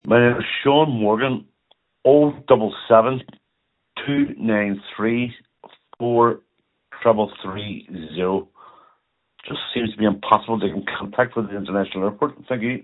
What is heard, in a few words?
call recording